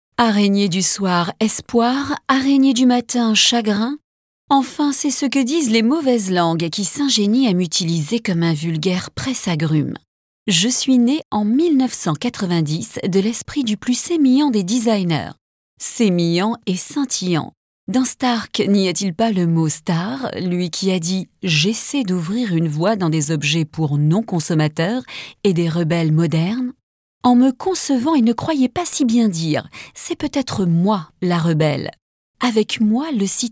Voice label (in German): FR Kommentar Freundlich